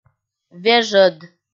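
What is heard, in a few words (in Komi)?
"вежӧд", Komi, female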